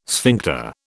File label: "sphincter", British